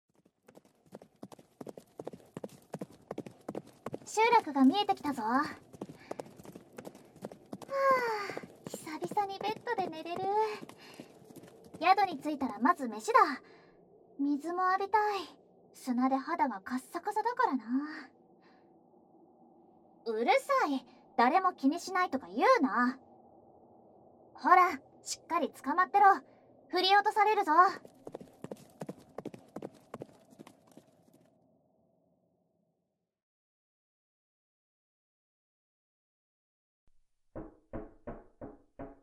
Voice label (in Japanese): 耳かき少女【BGMなし】; 荒野の集落と宿の耳かき少女